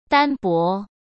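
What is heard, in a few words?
"单薄", Mandarin Chinese; hsk; chinese flashcards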